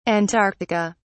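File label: "antarctica", British English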